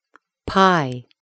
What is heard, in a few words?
"pie", English